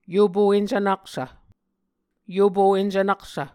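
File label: "yopo encvnaksv", Muscogee words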